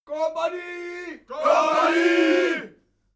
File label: military shout german attention